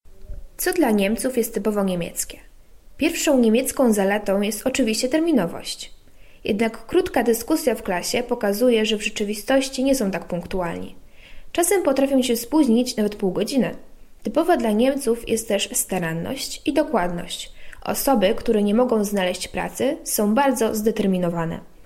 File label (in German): polnisch; D Pünktlichkeit